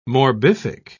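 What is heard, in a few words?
"morbific", British English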